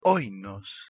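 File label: "h1oinos", Proto-Indo-European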